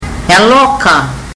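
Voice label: "yaloka", Fijian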